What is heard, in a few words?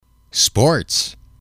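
"sports", English, male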